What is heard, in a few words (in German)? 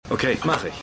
Polizist, Stimmproben